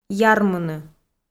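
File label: "ярмыны", Komi, female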